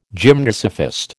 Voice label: "gymnosophist", American